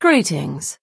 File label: Imperial female hello